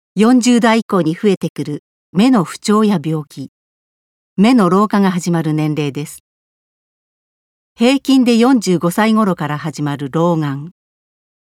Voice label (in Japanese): 健診周知ナレ